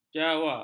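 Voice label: "jawa", Malay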